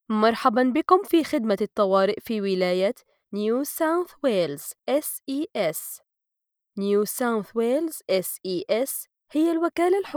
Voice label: arabic